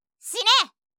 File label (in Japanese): "しね！", 相づち・反応系【ネガティブ】; ASMR